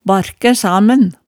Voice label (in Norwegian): "barkæ samen", hemsedals maalet tale